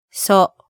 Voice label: "so", hiragana

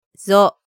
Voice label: "zo", hiragana

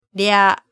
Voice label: "rya", hiragana

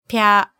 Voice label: "pya", hiragana

